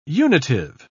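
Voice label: "unitive", British English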